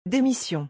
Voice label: "démission", French

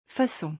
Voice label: "façon", French

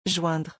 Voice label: "joindre", French

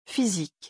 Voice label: "physique", French

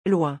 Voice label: "loin", French

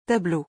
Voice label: "tableau", French

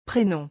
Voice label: "prénom", French

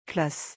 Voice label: "classe", French

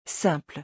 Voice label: "simple", French

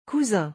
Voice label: "cousin", French

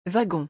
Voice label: "Wagon", French

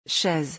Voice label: "chaise", French

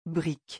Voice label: "brique", French

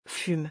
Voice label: "fume", French

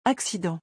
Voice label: "accident", French

